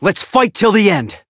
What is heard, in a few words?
Voice chant